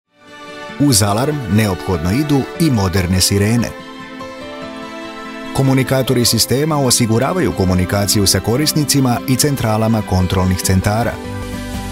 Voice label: naracija za promo video srpski voice over; pametni sistemi kuce

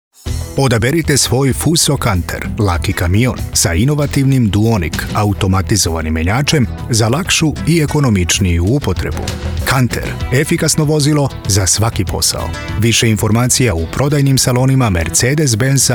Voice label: reklama radio spot; prodaja vozila auto kuca salon automobila

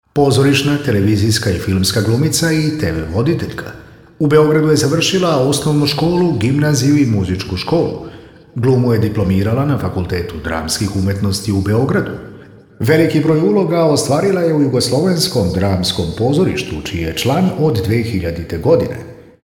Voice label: spiker najava kandidata priredba svecanost dodela nagrada; snimak offa; prezenter citanje teksta za intro video; jubilej susreti

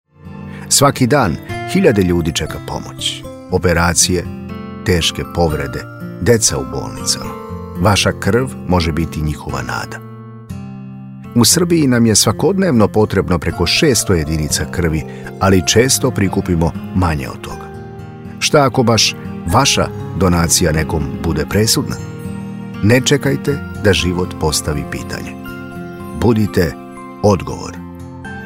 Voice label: glas ljudski zabrinut tuzan iskren blizak; voiceover iz srbije

